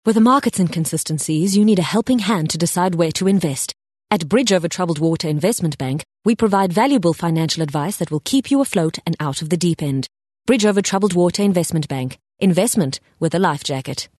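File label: English Corporate South African Neutral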